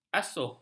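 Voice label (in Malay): "asuh", Malay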